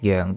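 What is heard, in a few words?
"joeng4", Cantonese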